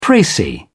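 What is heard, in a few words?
"precis", British English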